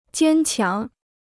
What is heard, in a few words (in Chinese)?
"坚强", Chinese